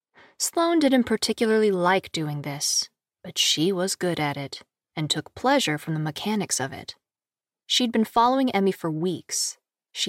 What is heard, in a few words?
Audiobook